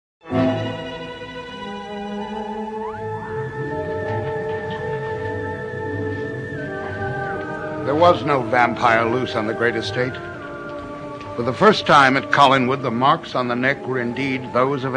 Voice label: Closing Voice Over; Halloween